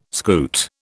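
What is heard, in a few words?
"scoot", British English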